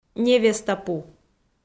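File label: "невестапу", Komi, female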